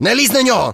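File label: talk, Ukrainian; monsters; human; combat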